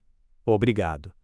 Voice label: Thank You Portuguese